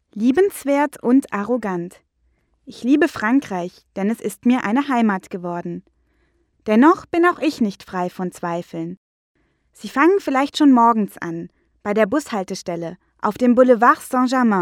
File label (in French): femme allemande